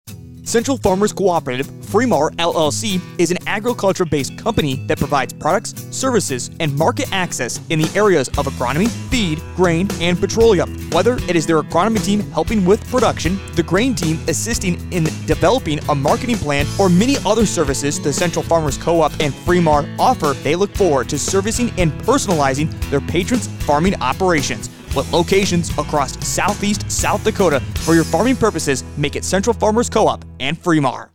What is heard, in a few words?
Commercial; CFC - Fremar